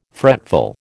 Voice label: "fretful", American

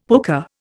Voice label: "pooka", Indian; Dictionary

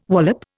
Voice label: "wallop", Indian

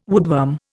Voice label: "woodworm", Indian

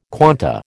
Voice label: "quanta", American

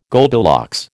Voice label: "Goldilocks", American

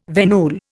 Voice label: "venule", Indian

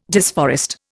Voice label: "disforest", Indian

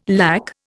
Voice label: "lakh", Indian

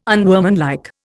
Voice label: "unworkmanlike", Indian

